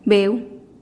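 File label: "beu", Teotitlán del Valle Zapotec; fox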